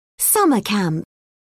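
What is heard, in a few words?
"summer camp", British English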